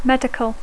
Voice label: "medical", English